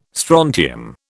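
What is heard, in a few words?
"strontium", British English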